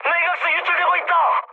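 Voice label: Korean damaged water mood high, speech; War Thunder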